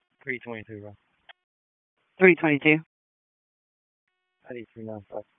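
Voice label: Police District Dispatch; Raleigh; Northeast; wake; p25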